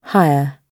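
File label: "higher", British English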